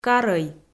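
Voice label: "карӧй", Komi, female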